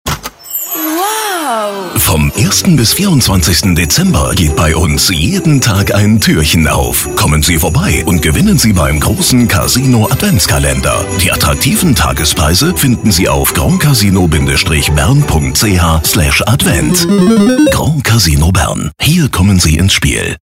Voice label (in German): Funkspot